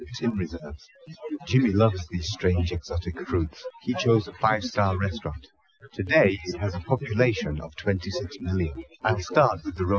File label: male inst src; SiSEC11; underdetermined